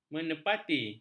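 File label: "menepati", Malay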